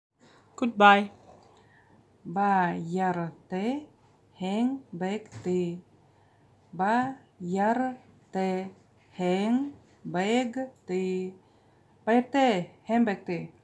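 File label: Buryat Good Bye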